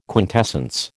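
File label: "quintessence", American English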